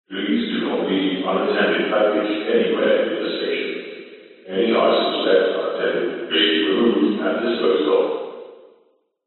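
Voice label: public places train station security announcement male english please do not leave unattended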